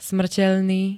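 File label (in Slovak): "smrteľný", Slovak; podliehajúci smrti; smrtiaci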